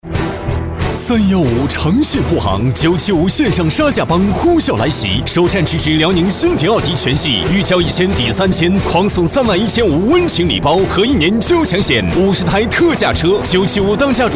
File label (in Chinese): 男 广告 激情汽车